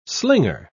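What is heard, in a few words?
"slinger", British English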